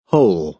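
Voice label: "hole", American English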